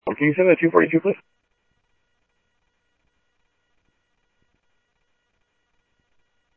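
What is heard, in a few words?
Sheriff West County, scanner audio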